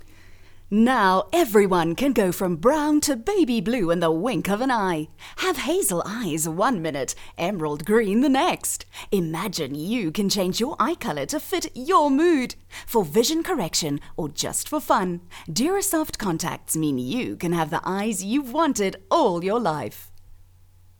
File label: hardsell happy english